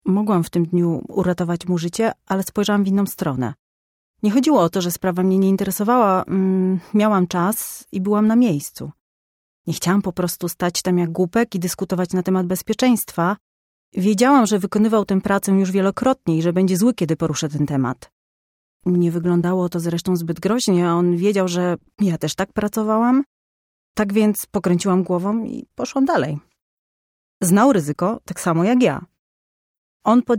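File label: Polish narration; sprecher; erzaehlung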